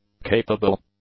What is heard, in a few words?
"capable", English; adjectives